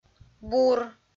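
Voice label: "бур", Komi-Zyrian, female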